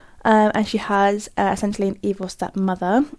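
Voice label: Spontaneous Speech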